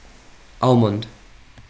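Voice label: "almond", USA, male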